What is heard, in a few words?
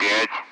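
Speech Russian; Allied Flight; Digits; DCS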